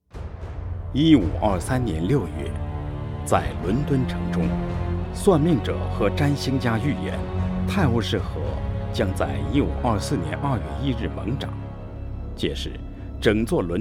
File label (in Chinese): 朗诵伦敦城雄浑大气配音